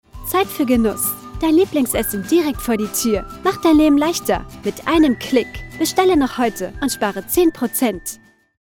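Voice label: German, female, delivery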